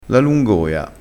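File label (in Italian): "lungoia", crespinese dialettale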